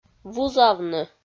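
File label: "вузавны", Komi, female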